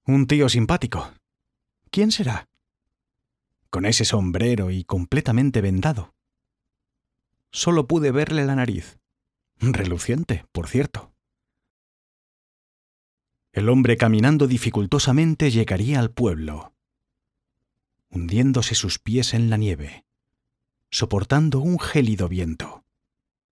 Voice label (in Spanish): audiolibros